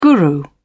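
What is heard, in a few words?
"guru", British English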